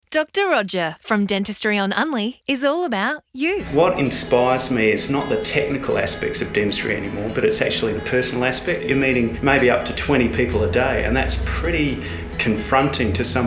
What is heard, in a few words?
RADIO AD